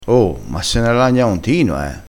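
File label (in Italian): "lagna", crespinese dialettale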